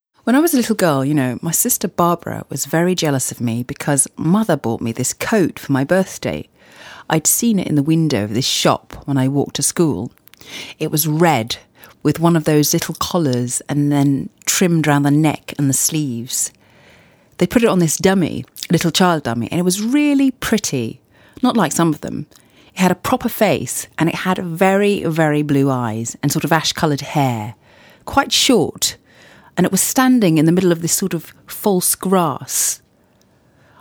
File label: English